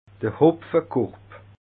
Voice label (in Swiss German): "hopfekorb", alsace